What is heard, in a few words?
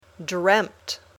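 "dreamt", English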